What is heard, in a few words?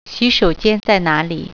"bathroom", Mandarin